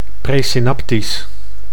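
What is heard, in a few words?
"presynaptisch", Dutch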